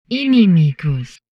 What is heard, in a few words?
humanoid portrait female; Stellaris; humanoids